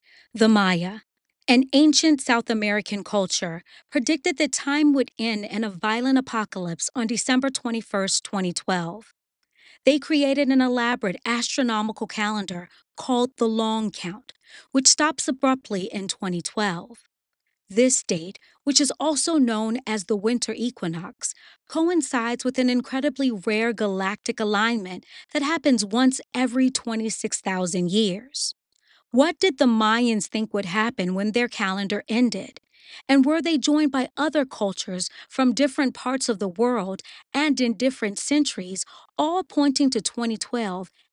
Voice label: Narration

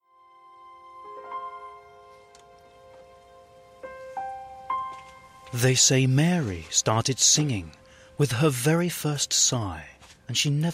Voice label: narrator; Mother Mary

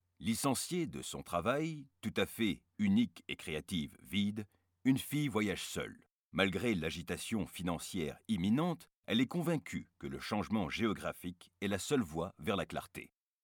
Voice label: A girl

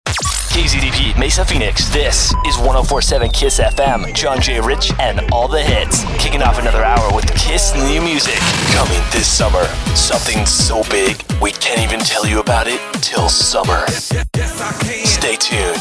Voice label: Radio Imaging

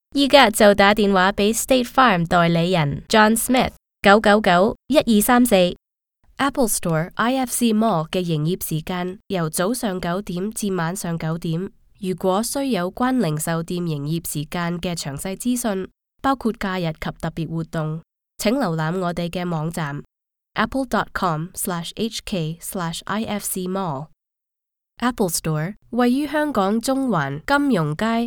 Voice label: Cantonese IVR

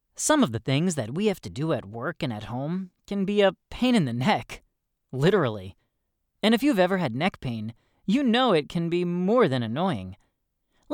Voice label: E-Learning Narrator

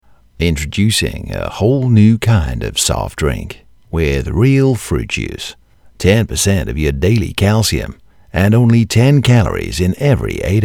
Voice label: American Accents

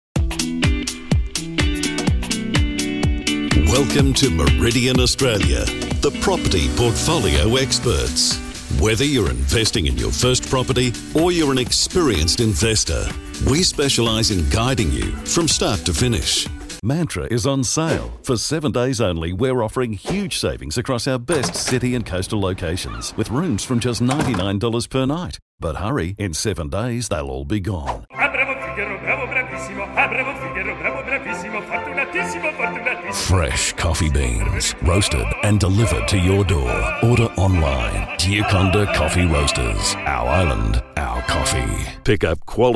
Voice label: Natural

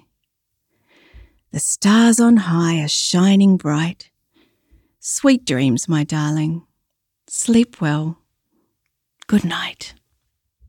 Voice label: Time for Bed Voiceover

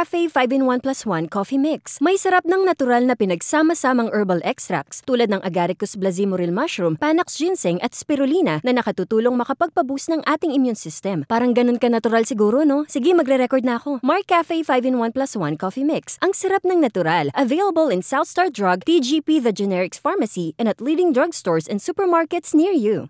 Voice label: Radio Commercial; Markcafe

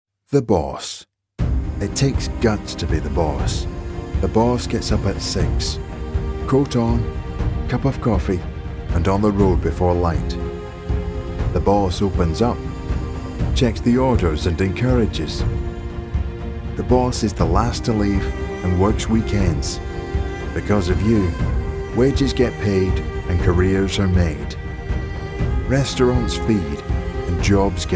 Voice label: Scottish Finance Commercial

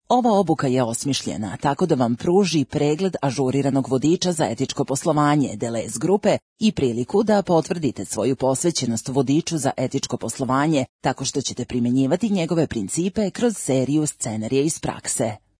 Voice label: Serbian; Delhaize

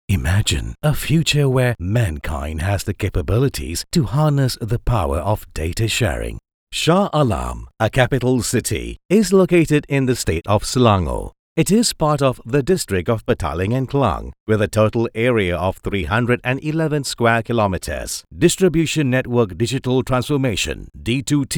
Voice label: English explainer eLearning

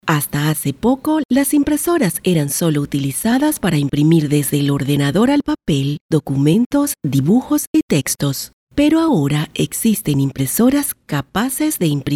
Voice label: Explainer Videos

